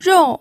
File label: "rou4", pinyin